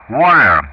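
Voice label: "Warrior", English; Ka-50; Callsign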